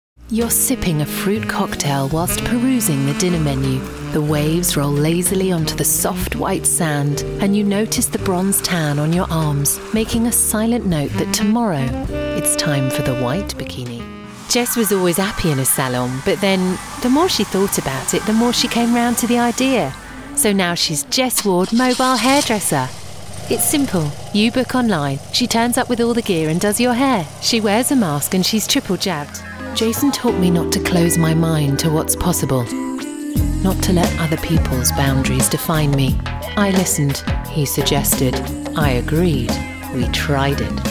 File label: Commercial